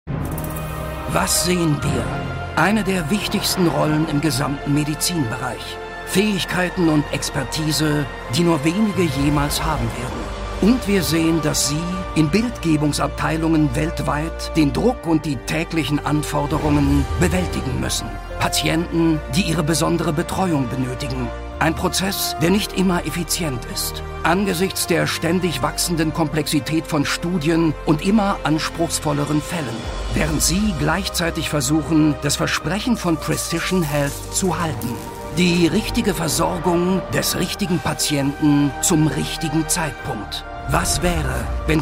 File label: Male German